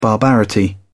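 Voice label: "barbarity", British English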